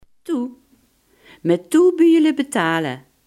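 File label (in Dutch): "dicht" Limburgish dialect word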